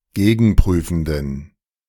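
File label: "gegenprüfenden", German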